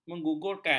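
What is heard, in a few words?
"menggugurkan", Malay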